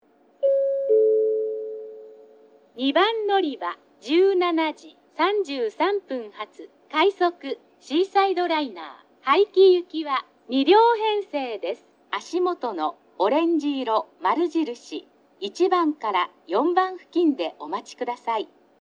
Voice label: 2番のりば案内放送; 快速シーサイドライナー 早岐行き; ハウステンボス; 乗車口 オレンジ色○印1〜4

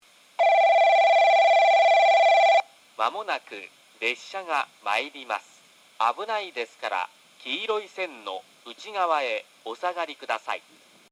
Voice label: 1番のりば接近放送 男声, 放送中は若干ノイズが出ています。; 伊集院; 旧放送; 1 川内方面 / 鹿児島中央方面; かつての放送は九州カンノ型Cでした。実際の放送は2回流れていました。; スピーカーはFPS平面波（FP200‐HW）です

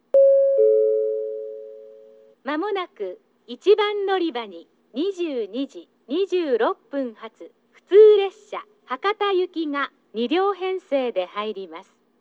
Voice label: 1番のりば接近予告放送; 普通 博多行き; takeo onsen; 鳥栖で熊本方面からの列車と併結しますが、その案内はありません。

